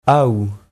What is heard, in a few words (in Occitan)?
"aou", Prononciation